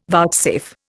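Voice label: "vouchsafe", Indian English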